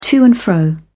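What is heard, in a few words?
"to-and-fro", British English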